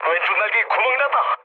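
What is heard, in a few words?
Korean left wing mood low; War Thunder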